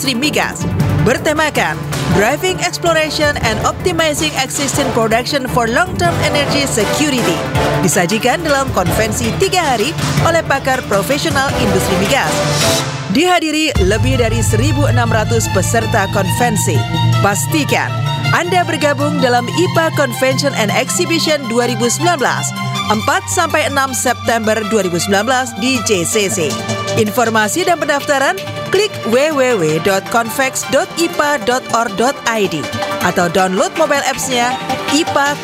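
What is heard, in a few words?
Indonesian formal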